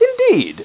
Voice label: upper answer, upperclass

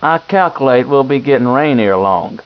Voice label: man question

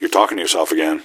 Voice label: answer, gasmask; scmod

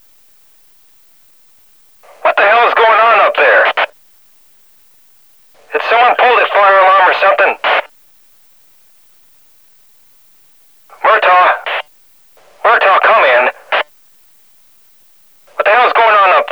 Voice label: walkie superior officer; grd02 walkie superiorofficer01-2

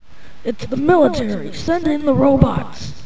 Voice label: robo

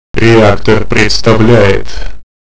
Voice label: announcer

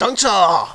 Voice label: viet dont be afraid

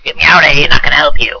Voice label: Old man

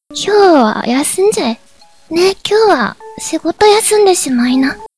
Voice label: chat

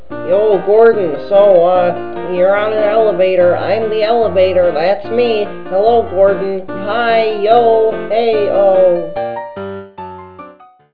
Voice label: talking elevator

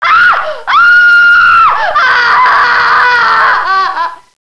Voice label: girl

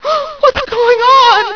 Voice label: women

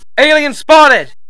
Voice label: alien spot

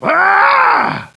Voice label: commando pain

